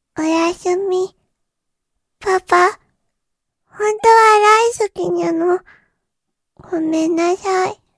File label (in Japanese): 火曜 娘, おやしゅみ